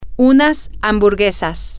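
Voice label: some hamburgers, Spanish, female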